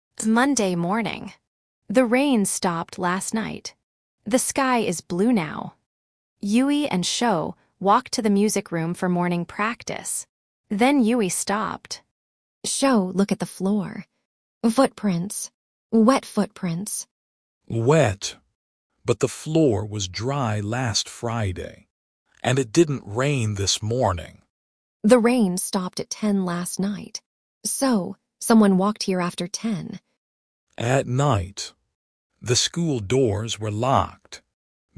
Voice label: drama